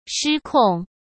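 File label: "失控", Mandarin Chinese; chinese flashcards; hsk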